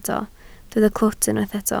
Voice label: "clwt", Welsh

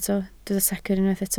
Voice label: "secr", Welsh

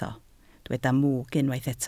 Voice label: "mwg", Welsh

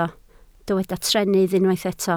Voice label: "trennydd", Welsh

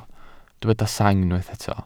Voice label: "sang", Welsh

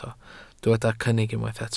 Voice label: "cynnig", welsh

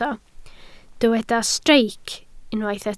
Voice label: "streic", welsh

